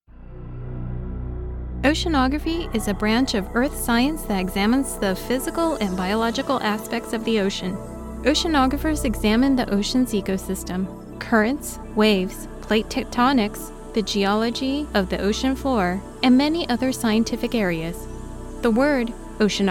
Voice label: E-Learning